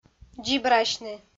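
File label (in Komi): "дзибрасьны", Komi, female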